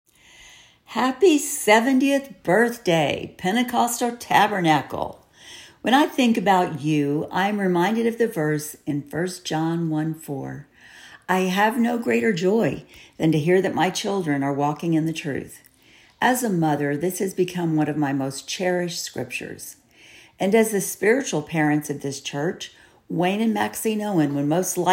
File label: Voiceover